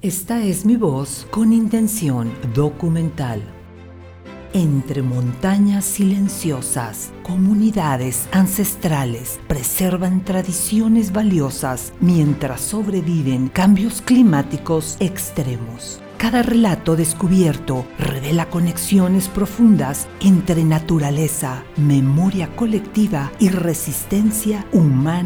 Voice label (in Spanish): Documental Musicalizada